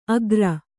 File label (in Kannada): "ಅಗ್ರ", Kannada